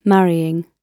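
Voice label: "marrying", British English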